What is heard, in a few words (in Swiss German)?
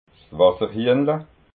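"wasserhiehnla", Alsatian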